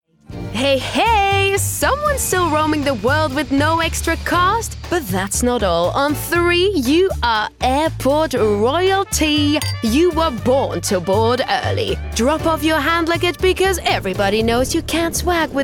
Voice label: English